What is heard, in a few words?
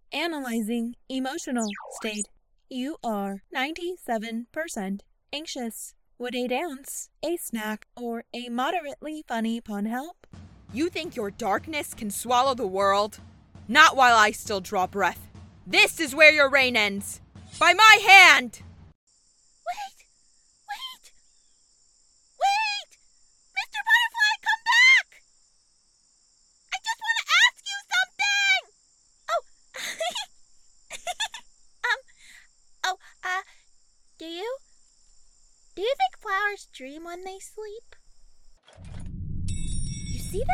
Animation Character; Korean-American